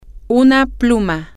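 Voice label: pen, Spanish, female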